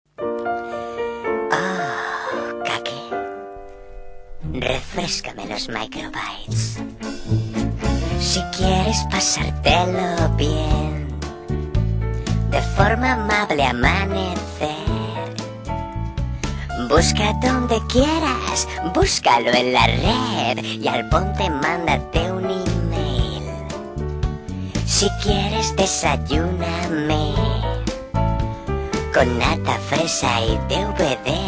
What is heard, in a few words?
spanish radio imaging